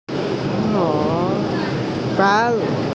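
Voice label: "huo3ban4", Mandarin Chinese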